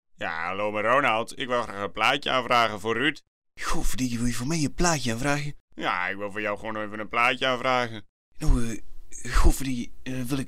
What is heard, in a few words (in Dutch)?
JINGLE, Ik wil graag een plaatje aanvragen; stationsongs